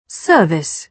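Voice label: "service", British English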